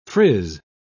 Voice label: "frizz", pronounce